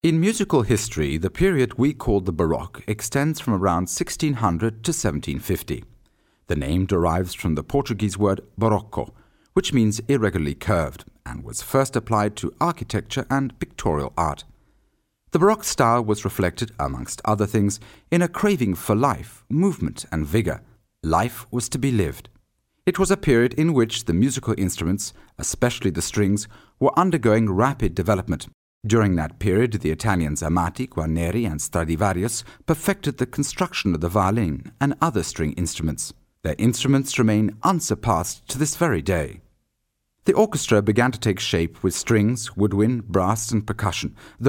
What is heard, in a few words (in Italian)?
inglese uk